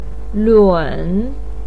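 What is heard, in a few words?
"lun", Mandarin Chinese third tone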